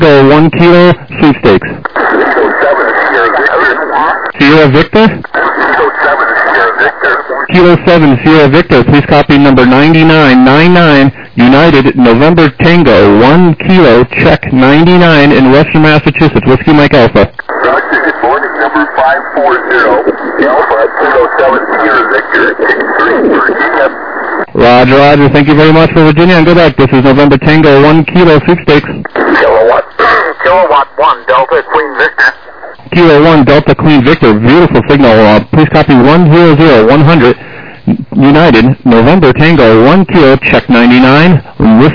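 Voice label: lower sideband 7MHz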